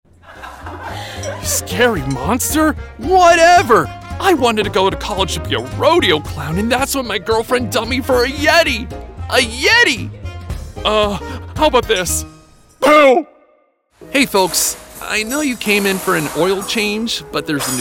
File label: Animation